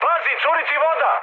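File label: Serbian damaged water mood high; War Thunder; speech srb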